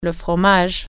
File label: cheese, french